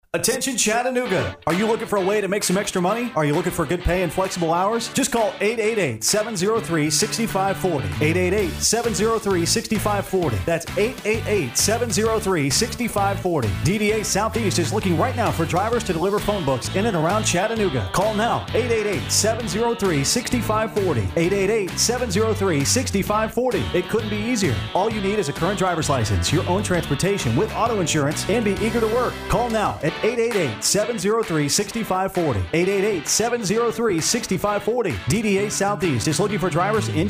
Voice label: Eng Recruit; Chatanoga